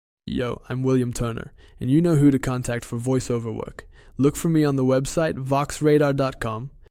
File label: english voiceover